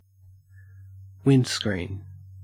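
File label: "windscreen", Australian English; pronunciations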